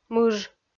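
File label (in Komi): "мыж", Komi, female; kpv audio dict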